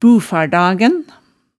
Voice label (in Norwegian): "bufardagen", hemsedalsmaalet tale